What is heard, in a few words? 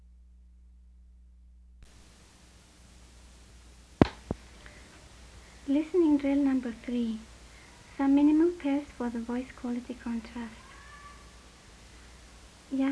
Western Tamang